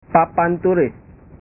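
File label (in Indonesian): "papan tulis", Indonesian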